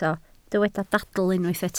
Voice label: "dadl", Welsh

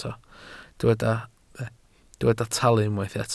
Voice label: "talu", Welsh

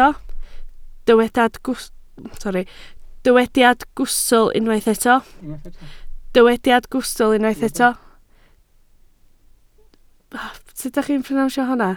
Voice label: "gwystl", Welsh

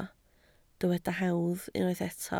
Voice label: "hawdd", Welsh

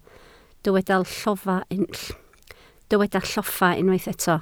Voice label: "lloffa", Welsh

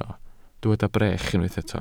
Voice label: "brech", welsh

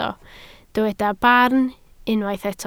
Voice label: "barn", Welsh

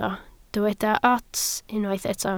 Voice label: "ots", Welsh

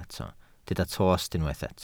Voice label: "tost", Welsh

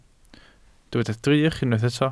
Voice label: "drych", Welsh

